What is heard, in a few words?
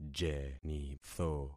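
"jenitho", concatenated syllables; Affixing experiment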